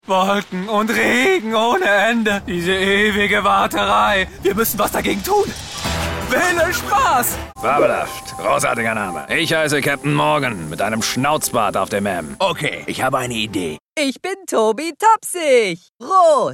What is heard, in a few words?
German Animation Voicereel